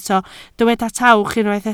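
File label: "tawch" Welsh, spoken word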